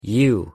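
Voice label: pronunciation "you", English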